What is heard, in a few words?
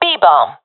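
loc b, professional female; vo; Counter Strike Model Pack; CS:GO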